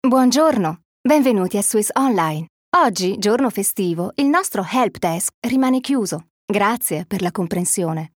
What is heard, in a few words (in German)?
Kommentar Freundlich; IT